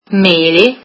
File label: "apples", italian